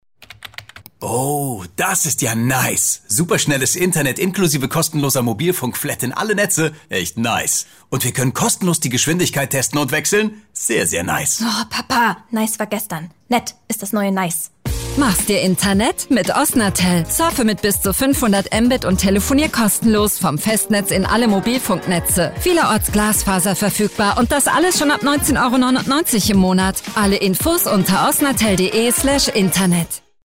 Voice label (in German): FUNKSPOT natuerlich jung; OFFOsnatel